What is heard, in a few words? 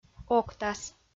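"октас", Komi, female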